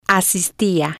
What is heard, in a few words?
"asistia", spanish